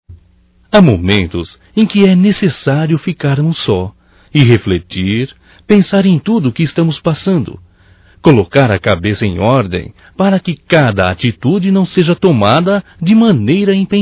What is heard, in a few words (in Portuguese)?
Romântico Masculino, Telemensagens; Românticas